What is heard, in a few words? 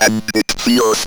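ROBVOX, robot voice; E-Lab; X Static Goldmine 1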